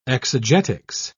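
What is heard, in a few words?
"exegetics", British English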